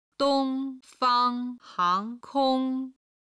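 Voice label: "dong1 fang1 hang2 kong1", Chinese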